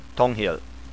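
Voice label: "tonghyel", spoken word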